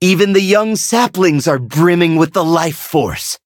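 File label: level up, English; cookie run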